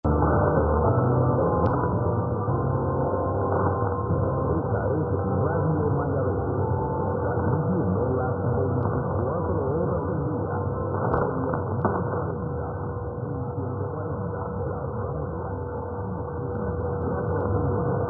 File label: 1040 Radio Mayabeque Cuba